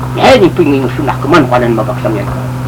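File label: Yami language, male, Iranmilek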